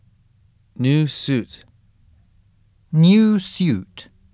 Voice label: "NEW SUIT", USUK Pronunciation